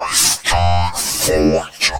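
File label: effects talk, Funky Vocals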